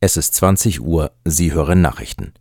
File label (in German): 20 uhr nachrichten